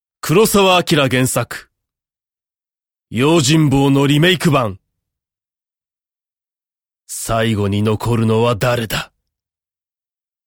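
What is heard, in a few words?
narration male natural; m power funiki; パワーのある雰囲気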